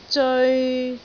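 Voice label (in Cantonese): "zeoi3", Cantonese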